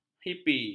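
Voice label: "hipi", Malay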